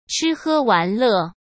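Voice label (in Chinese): "吃喝玩乐", Mandarin Chinese; chinese-flashcards; hsk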